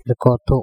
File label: erkOtho nose, Andamanese